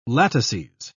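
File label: "latices", British English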